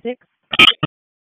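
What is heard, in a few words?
Raleigh Police Special Operations Tactical, p25; Wake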